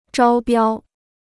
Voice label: "招标", Chinese

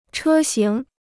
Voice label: "车型", Chinese

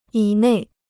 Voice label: "以内", Chinese

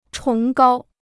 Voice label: "崇高", Chinese

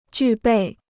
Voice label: "具备", Chinese

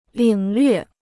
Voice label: "领略", Chinese

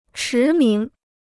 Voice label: "驰名", Chinese

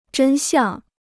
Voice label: "真相", Chinese

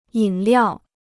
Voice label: "饮料", Chinese

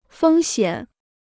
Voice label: "风险", Chinese

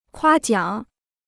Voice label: "夸奖", Chinese; 夸奖 (kuā jiǎng); to praise; to applaud

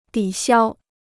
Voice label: "抵消", Chinese

